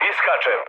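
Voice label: Serbian bailout mood med, speech; War Thunder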